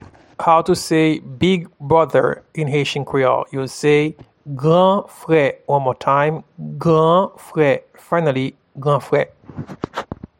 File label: Big brother in Haitian Creole, Gran fre